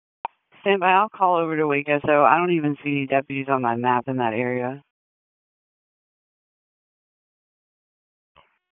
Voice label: Raleigh Police Southeast District Disp, P25 recordings